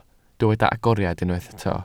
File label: "agoriad", Welsh